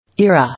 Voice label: "Era", English